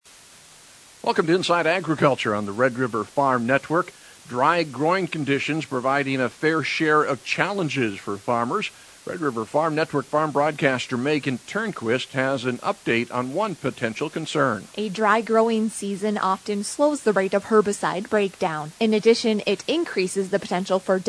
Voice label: air check; WinField United